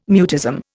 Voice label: "mutism", Indian

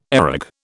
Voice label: "areg", British

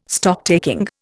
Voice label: "Stocktaking", Indian

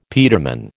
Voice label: "peterman", American

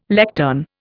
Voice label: "lectern", Indian

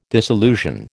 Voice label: "disillusion", American

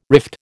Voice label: "rift", American

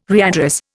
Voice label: "readdress", Indian

